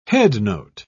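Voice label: "headnote", British English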